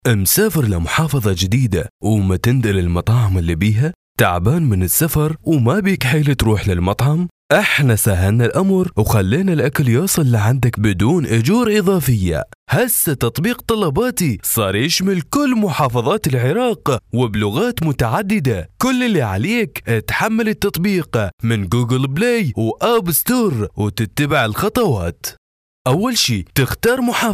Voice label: iraqi male; dictor